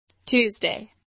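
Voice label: "Tuesday", English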